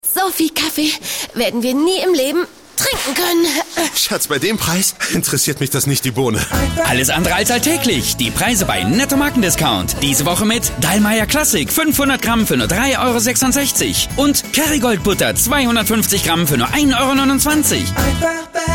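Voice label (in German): Schauspiel Dynamisch; Fremdtake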